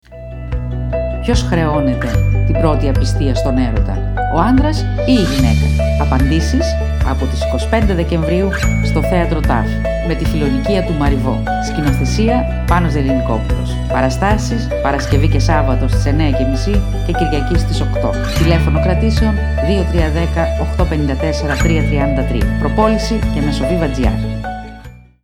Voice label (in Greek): ΦΙΛΟΝΙΚΙΑ RADIO SPOT